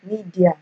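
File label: "media", Nigerian Pidgin, female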